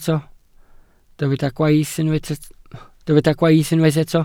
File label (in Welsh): "gwaith" Welsh